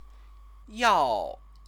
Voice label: "yao", Chinese; 药; medicine; noun; Chinese lessons